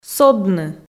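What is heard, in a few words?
"содны", Komi, female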